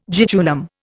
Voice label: "jejunum", Indian